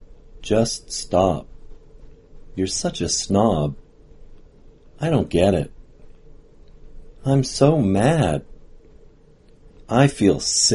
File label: no audible release